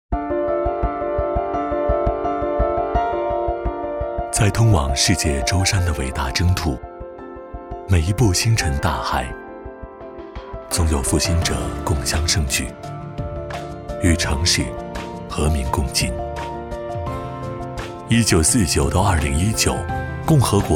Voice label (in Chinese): 宣传片大气; 曙光